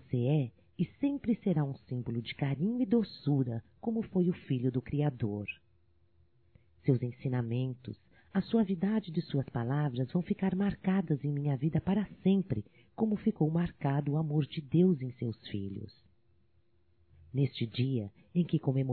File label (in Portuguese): Pai Feminino, Telemensagens, Natal; Telemensagens 2017; Natal & Ano Novo